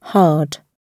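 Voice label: "hard", British English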